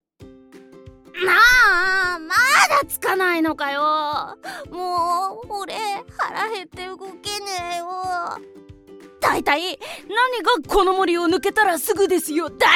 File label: boy